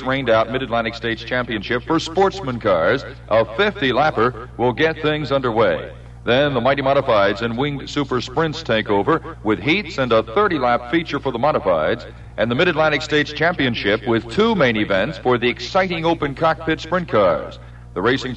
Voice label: Flemington Speedway commercial